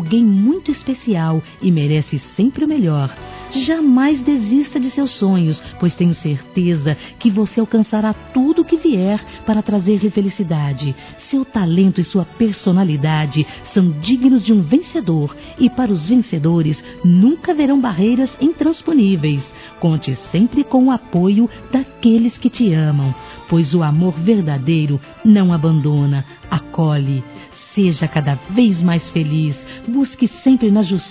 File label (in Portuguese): Aniversário Sobrinha Feminino, Telemensagens; Aniv Familiar